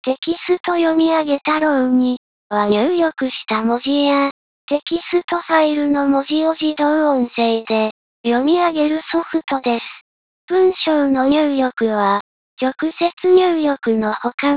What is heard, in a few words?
lady